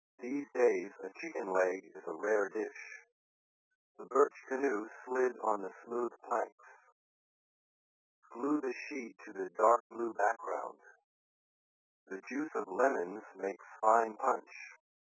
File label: pshift male, after compression and decompression by the VLC codec at 8000 bps